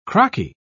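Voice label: "crocky", British English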